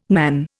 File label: "nan", Indian